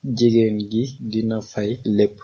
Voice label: pay, wolof